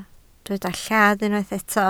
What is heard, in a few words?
"lladd", Welsh